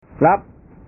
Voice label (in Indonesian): "lap", bahasa